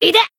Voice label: Voice damaged jp; ciel